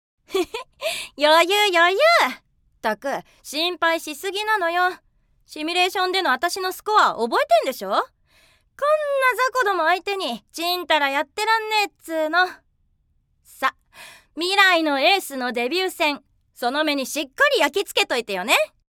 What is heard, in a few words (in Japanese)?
勝気な少女